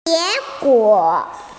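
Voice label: "result", Mandarin Chinese, female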